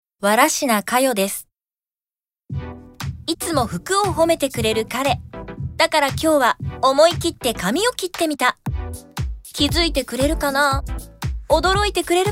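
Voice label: commercial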